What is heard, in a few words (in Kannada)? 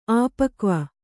"ಆಪಕ್ವ", Kannada; āpakva